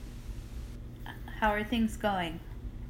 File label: How are things going USA